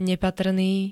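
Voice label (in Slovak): "nepatrný", Slovenské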